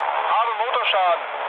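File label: German damaged engine mood low, speech; War Thunder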